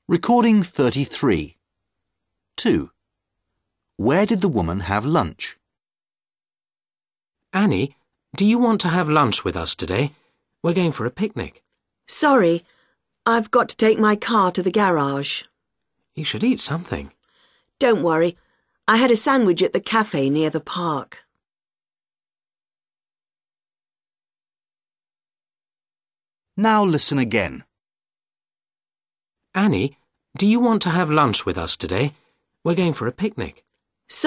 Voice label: dialog